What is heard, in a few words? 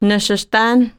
dari Sitting